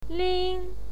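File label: "ling", pinyin, first tone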